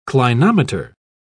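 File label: "clinometer", British English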